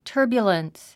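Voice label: "turbulence", English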